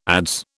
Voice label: "adze", British